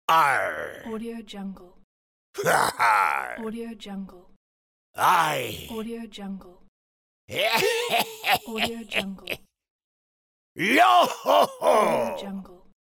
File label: old pirate game voice